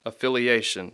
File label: "affiliation", English; Speech Pro